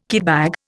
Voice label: "kitbag", Indian

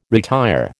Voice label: "retire", American

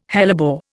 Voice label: "hellebore", Indian

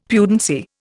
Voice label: "pudency", Indian; Dictionary

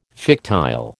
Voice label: "fictile", American

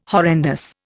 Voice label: "horrendous", Indian

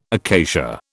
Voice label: "acacia", British

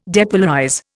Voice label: "depolarize", Indian

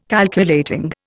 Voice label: "Calculating", Indian

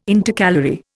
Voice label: "Intercalary", Indian

